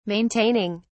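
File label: "maintaining", British English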